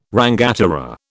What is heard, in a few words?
"rangatira", British